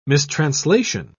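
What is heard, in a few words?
"mistranslation", British English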